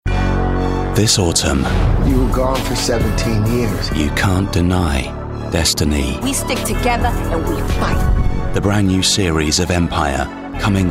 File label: Trailer